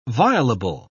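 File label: "violable", English, British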